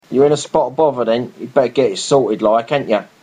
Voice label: Cockney You in a spot of bother, accents of english; Are you in trouble. You had better find a solution.; You in a spot of bother, then? You’d better get it sorted like, ain’t you?